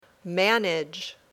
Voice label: "manage", English

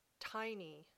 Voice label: "tiny", English

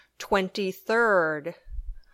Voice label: "twenty third", English

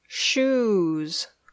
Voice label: "shoes", English

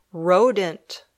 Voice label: "rodent", English

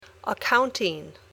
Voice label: "accounting", English

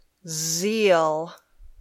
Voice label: "zeal", English

